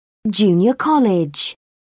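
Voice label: "junior college", British English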